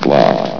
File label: "blocked", vox; Source Engine; bshift